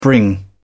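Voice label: "bring", British English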